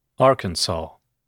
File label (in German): amerikanisch; arkansas